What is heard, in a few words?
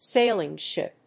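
"sailing ship", English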